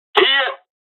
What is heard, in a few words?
dialogs japanese; War Thunder